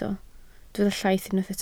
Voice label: "llaith", Welsh